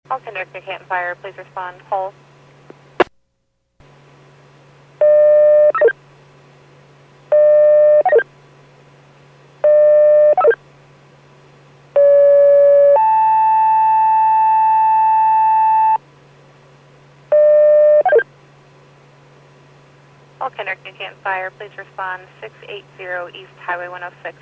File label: PAGING